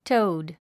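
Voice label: "toad", English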